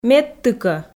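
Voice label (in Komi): "медтыкӧ", Komi, female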